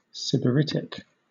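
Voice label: "sybaritic", British English; pronunciation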